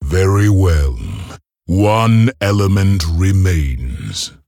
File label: Male Orc